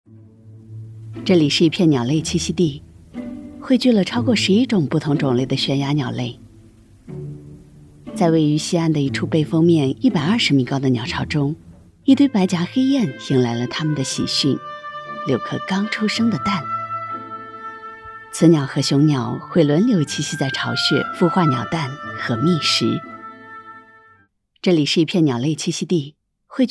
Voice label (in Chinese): 女 纪录片 自然讲述人文鸟类栖息地